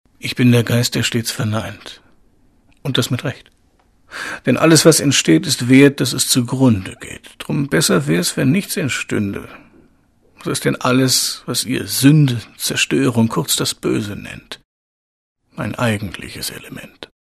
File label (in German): Schauspiel Cool